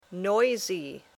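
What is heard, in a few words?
"noisy", English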